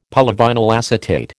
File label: "polyvinyl acetate", American